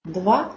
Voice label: Belarusian